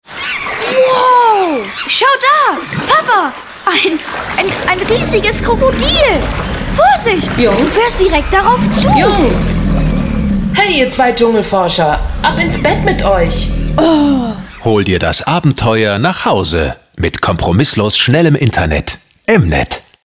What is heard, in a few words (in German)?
Funkspot